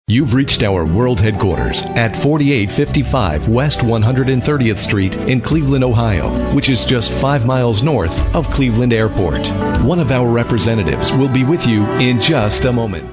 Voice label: onhold message